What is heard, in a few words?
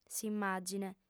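"s'immàgine", female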